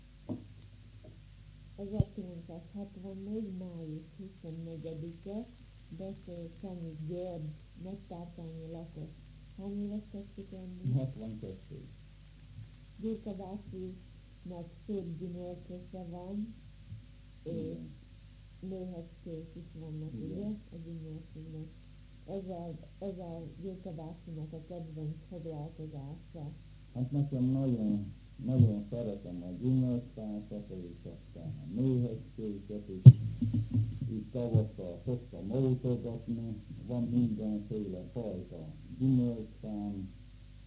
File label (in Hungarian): nyelvjaras gyujtesek